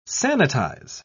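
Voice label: "sanitise", British English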